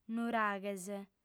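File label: "nuraghes", female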